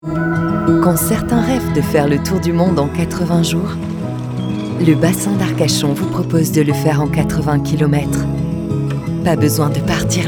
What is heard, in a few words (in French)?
SPOT TV, Voix Off Femme; BASSIN ARCACHON